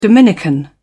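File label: "dominican", British English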